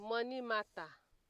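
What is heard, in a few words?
"money matter", Nigerian Pidgin, female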